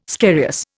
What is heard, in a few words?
"scarious", Indian